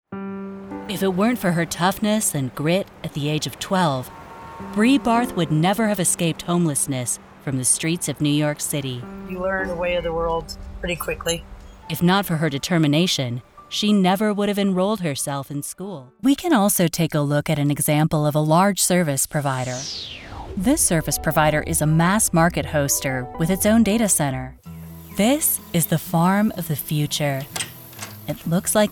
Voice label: NARRATION